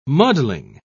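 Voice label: "muddling", British English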